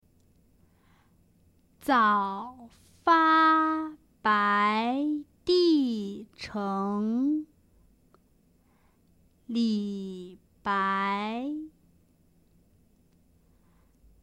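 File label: Speech Contest Zaofabaidicheng slow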